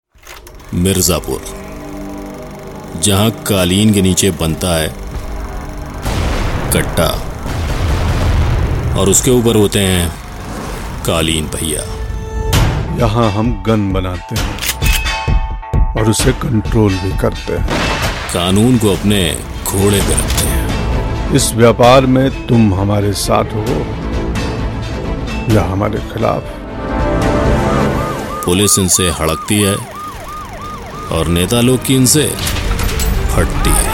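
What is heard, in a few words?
radio spots hindi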